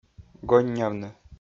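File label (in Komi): "гоннявны", Komi-Zyrian, male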